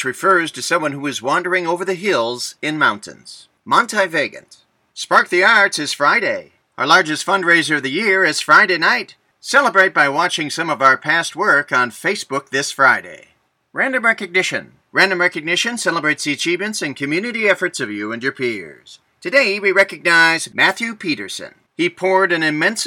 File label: Announcements